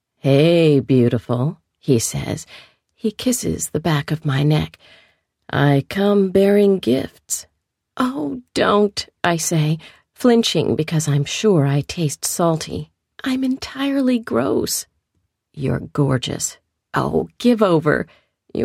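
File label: female fiction, narrator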